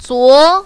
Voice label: "zuo2", mandarin, female

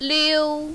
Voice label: "liu1", mandarin, female

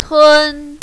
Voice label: "tun1", mandarin, female

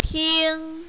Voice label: "ting1", mandarin, female

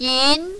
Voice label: "yin2", mandarin, female

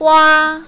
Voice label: "hua1", mandarin, female

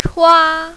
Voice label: "chua1", mandarin, female

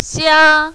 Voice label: "xia1", mandarin, female

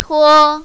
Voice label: "tuo1", mandarin, female

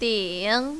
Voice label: "ding3", mandarin, female

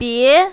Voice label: "bie2", mandarin, female

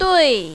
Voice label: "dui4", mandarin, female

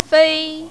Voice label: "fei1", mandarin, female

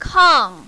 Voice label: "kang4", mandarin, female

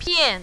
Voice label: "pian4", mandarin, female

